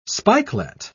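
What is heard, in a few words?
"spikelet", British English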